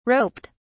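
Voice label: "roped", British English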